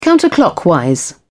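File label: "counterclockwise", British English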